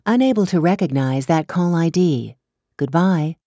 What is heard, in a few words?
ivr id incorrect goodbye